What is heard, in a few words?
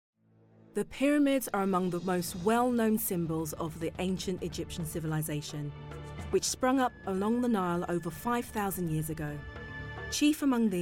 Documentary